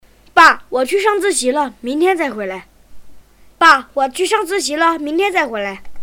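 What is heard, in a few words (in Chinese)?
女大音-男真童; 真童配音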